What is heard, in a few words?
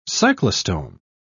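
"cyclostome", British English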